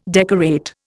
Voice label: "decorate", Indian